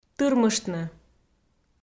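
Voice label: "тырмыштны", Komi, female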